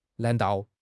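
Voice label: "nan2 dao4", Mandarin Chinese; HSK4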